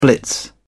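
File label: "blitz", British English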